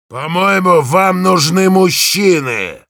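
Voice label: Heavy taunts, Russian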